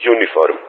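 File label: "Uniform", spoken; Ka-50; Alphabet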